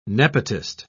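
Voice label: "nepotist", British English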